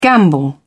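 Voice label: "gamble", English, British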